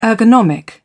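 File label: "ergonomic", British English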